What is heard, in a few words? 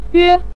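"yue", Mandarin Chinese syllable, neutral tone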